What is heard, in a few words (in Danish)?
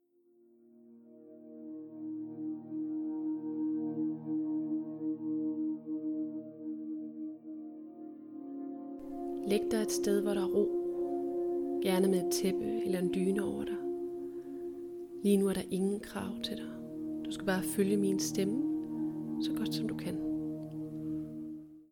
Hør min stemme DYB Afspænding med hypnotiske suggestioner; 20 min; Psykolog